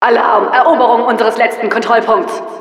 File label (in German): Announcer last flag, de; Administratorin